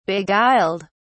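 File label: "beguiled", en, uk British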